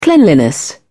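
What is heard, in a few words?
"cleanliness", English, uk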